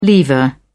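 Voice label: "lever", British English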